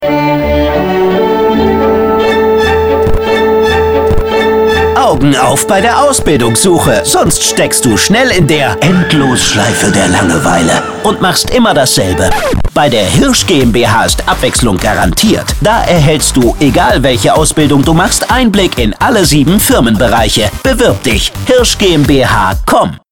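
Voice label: male, German, commercial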